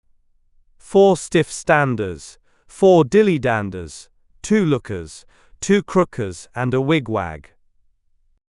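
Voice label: male British; Four Stiff Standers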